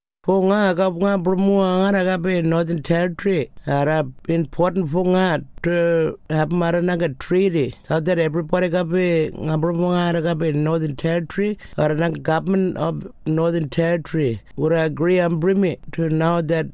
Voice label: Tiwi

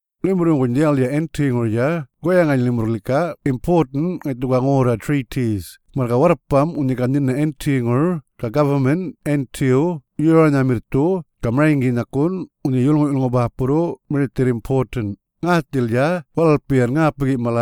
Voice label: Yolngu Matha

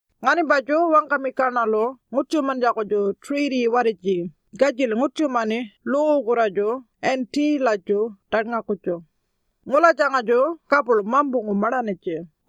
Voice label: Warlpiri

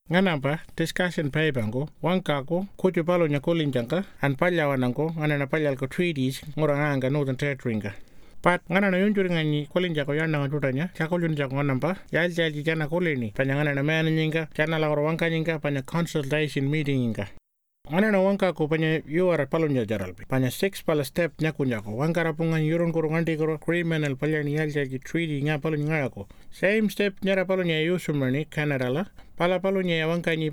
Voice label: Pintupi

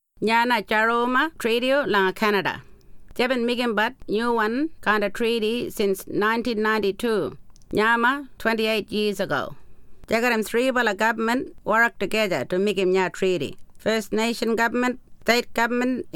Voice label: Ngarinyman

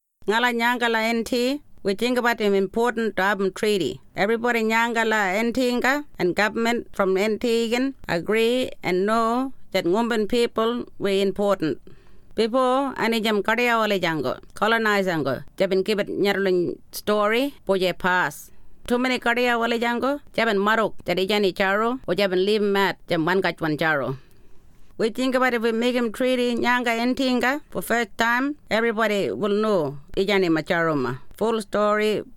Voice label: Ngarinyman

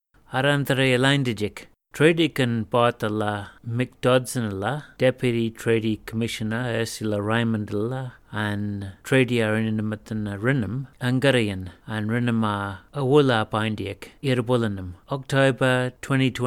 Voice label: Alyawarr

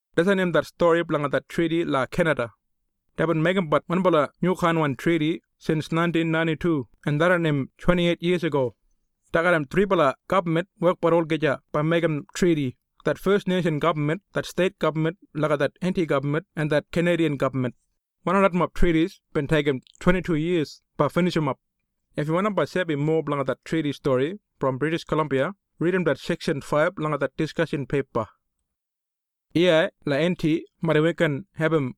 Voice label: Eastside Kriol

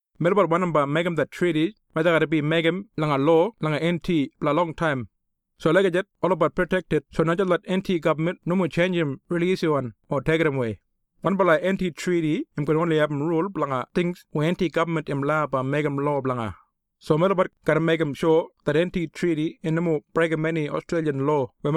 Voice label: Eastside Kriol

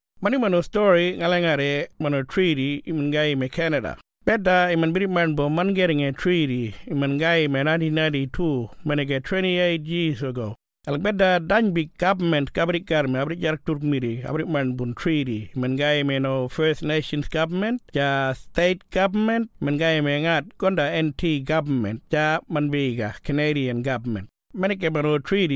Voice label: Kunwinjku

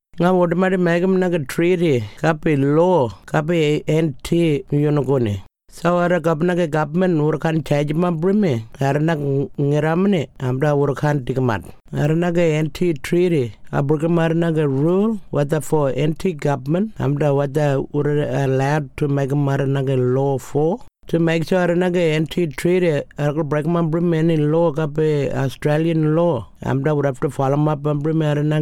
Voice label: Tiwi

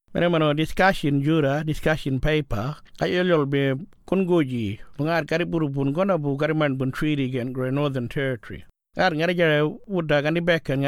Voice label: Kunwinjku

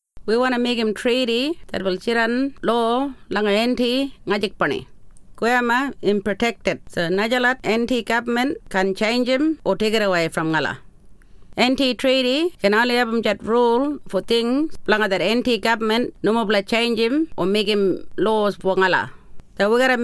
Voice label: Ngarinyman

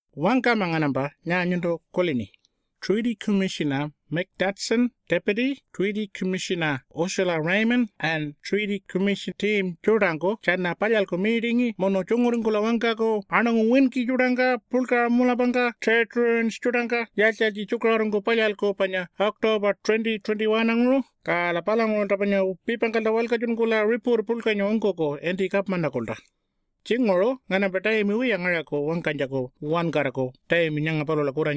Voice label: Pitjantjatjara

